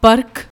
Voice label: "parakh", Punjabi

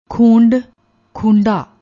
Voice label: "khoond", Punjabi

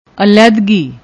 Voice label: "aledhgi", Punjabi; ਅਲਹਿਦਗੀ

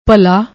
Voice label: "bhalaa", Punjabi

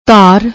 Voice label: "dhaar", Punjabi; ਧਾਰ

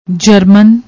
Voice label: "german", Punjabi; ਜਰਮਨ; جرمن; noun, masculine; German (citizen); noun, feminine; German (language); adjective

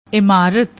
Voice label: "emarrut", Punjabi

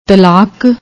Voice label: "talaak", Punjabi; ਤਲਾਕ

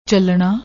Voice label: "challna", Punjabi; ਚੱਲਣਾ

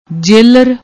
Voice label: "jailer", Punjabi

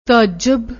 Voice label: "tajab", Punjabi; ਤਅੱਜਬ

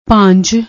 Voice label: "bhaanj", Punjabi

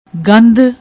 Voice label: "gandh", Punjabi

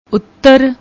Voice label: "uttar", Punjabi

